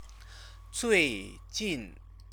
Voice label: "zuijin", Chinese; 最 近; recently; time word; Chinese lessons